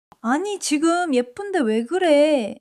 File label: joy; monologue